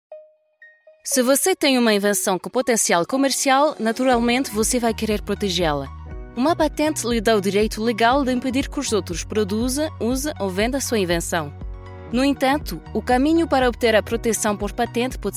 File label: portuguese corporate videos; dubladora profissional francesa